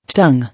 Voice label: "Tongue", English

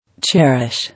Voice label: "Cherish", English

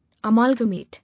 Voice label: "Amalgamate", English

